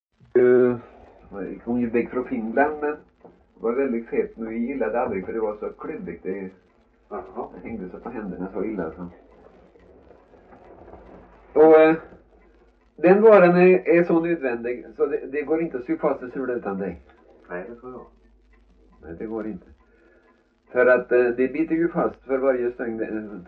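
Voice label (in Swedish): Värö Halland, Svenska sagor dialekter; Folkminnen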